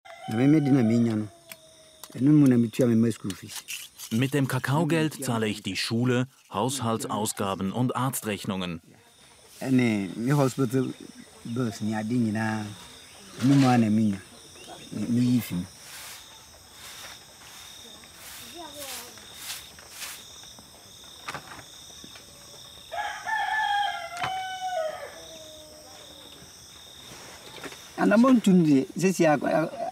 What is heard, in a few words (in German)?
voiceover, Dokumentationsfilm; Zartbitter – Dokumentationsfilm